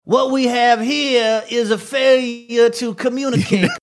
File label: what we have here is a failure to communicate dixiecrat accent